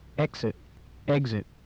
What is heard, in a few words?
"exit", English